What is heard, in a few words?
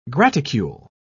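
"graticule", British English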